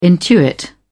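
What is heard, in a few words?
"intuit", English, uk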